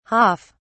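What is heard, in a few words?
"haaf", British English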